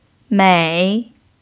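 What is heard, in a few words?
"mei" third tone, chinese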